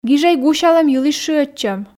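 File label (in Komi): "гижӧй гусялӧм йылысь шыӧдчӧм", Komi, female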